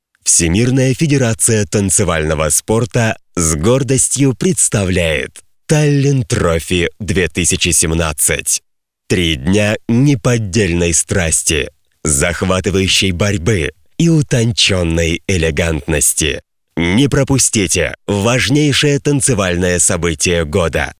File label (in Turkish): Rusça